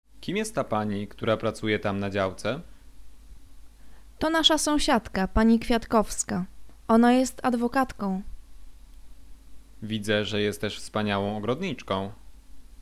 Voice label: conversation; lektorek; firstyear